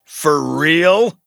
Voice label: VO en